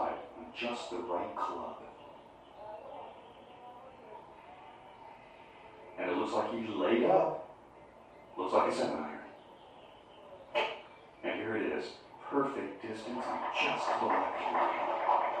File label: Television; Golf Game With Announcer, Crowd And Players. From Next Room.; sports